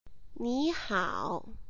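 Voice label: "ni hao", Mandarin Chinese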